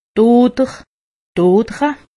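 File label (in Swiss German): "oder", Haut Rhin; medecine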